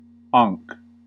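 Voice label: "ankh", US English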